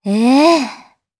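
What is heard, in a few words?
Vox Japanese; DarkFrey; kingsraid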